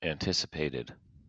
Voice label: "anticipated", us